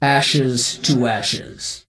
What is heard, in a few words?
NPC Death Knight Female Low Farewell